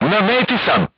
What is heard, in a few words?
voice message direct hit, crew tanks serbian